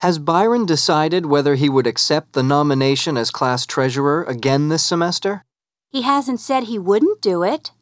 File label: dialog